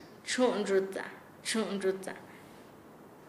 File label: Nasa Yuwe; arrugar; paez